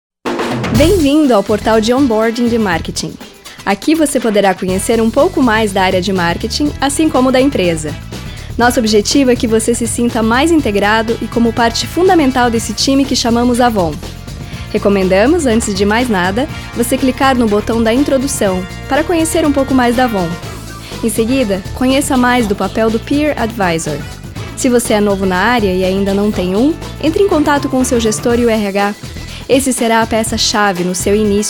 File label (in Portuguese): Loc institucional; Avon